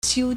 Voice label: "xiu1", Chinese